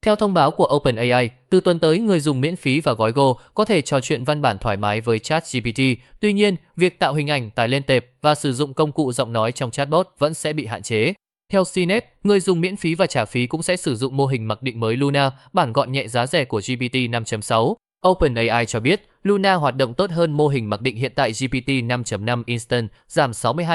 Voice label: news code switch